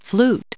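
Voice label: "flute", US English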